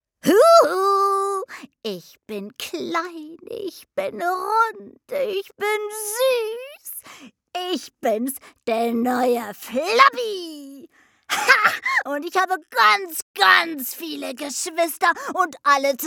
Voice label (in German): trickstimme werbung